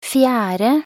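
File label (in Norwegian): "fjerde", Norwegian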